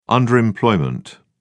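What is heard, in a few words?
"underemployment", British English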